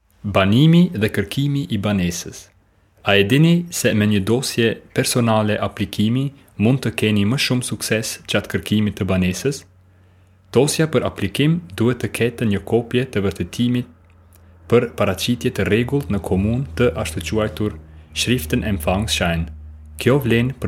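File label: Albanian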